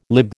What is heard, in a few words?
"lib", American